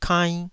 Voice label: "kainn2", taiwanese syllables